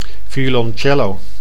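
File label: "violoncello", Dutch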